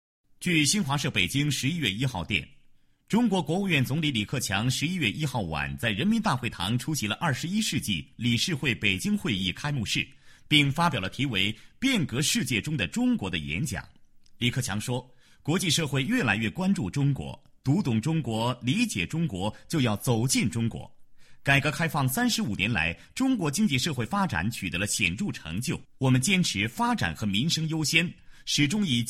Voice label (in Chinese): 男 新闻, 浑厚磁性, 国语男声配音; 变革世界中的中国